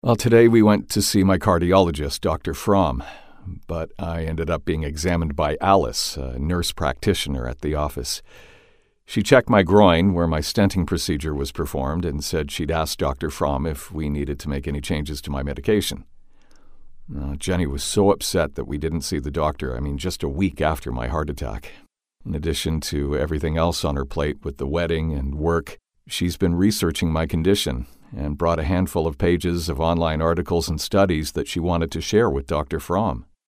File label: english american medical narration; Voz masculina "neutral en inglés norteamericano" (sin acento regional).